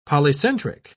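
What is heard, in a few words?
"polycentric", British English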